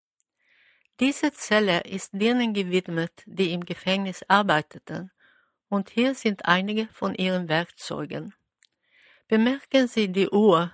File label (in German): cell, audioguider